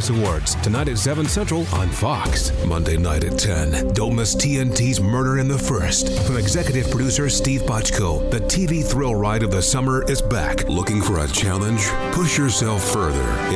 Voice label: Commercial Male

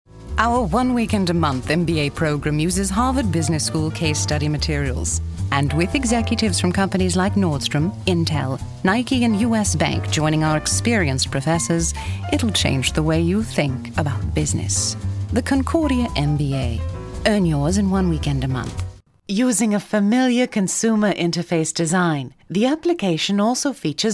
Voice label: BRITISH, commercial voiceover

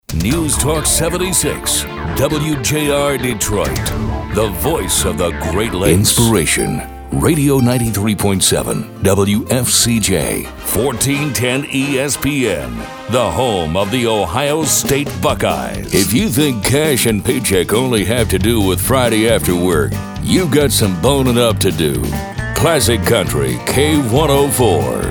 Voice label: Imaging Male

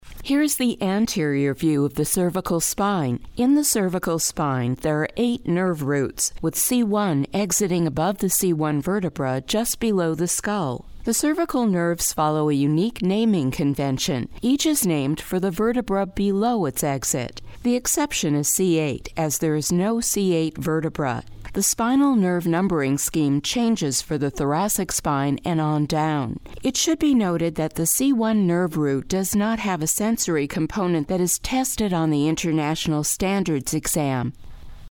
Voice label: Narration, Medical, Female

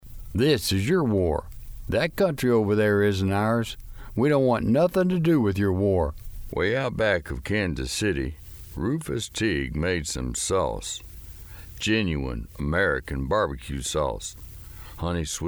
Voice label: characters male

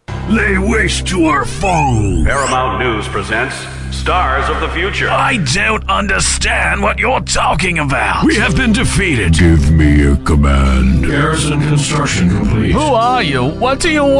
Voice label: Characters Male

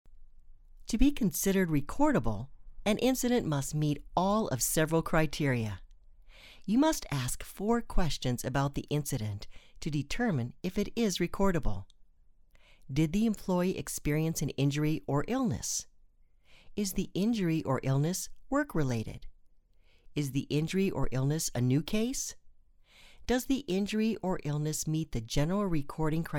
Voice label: eLearning, Female; Narration, eLearning

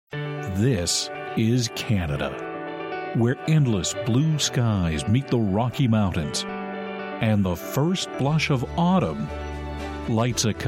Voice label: Narration, Male

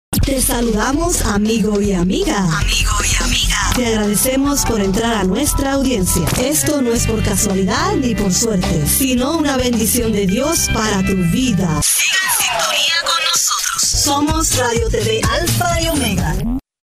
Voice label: commercial voiceover

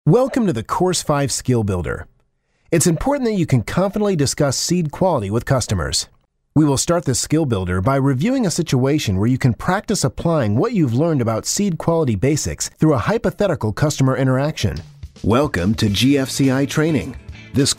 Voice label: eLearning, Male

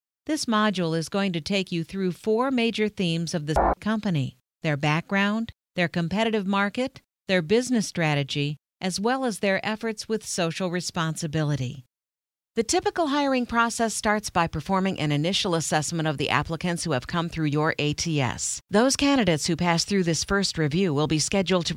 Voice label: eLearning Female

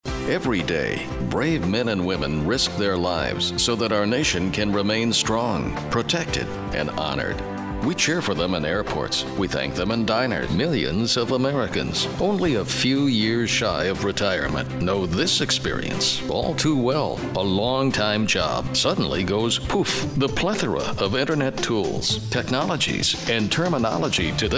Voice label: Narration Male

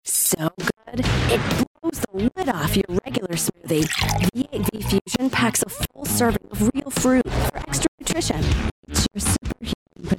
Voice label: Commercial Female

